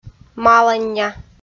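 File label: "малання", Komi, female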